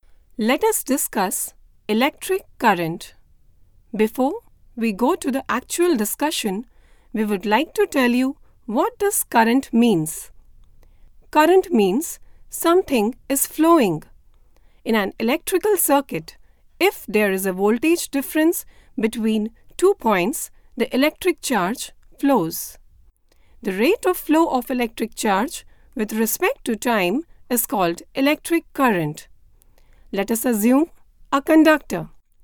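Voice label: elearn English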